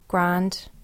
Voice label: "grand", english, Ireland